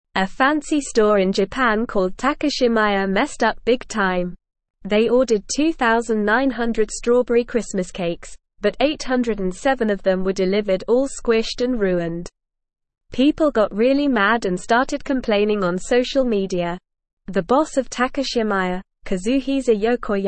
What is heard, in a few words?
English Upper Intermediate NORMAL Reading; English Newsroom